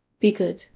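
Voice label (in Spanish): Voice Acting, es, female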